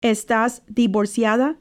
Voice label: Are you divorced female Esta divorciada